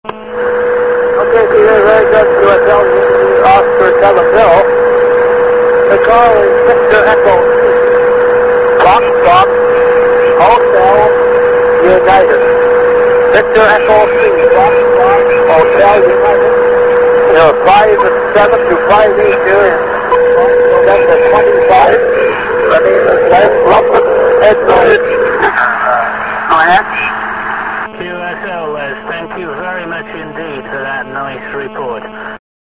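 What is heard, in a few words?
amateur radio contact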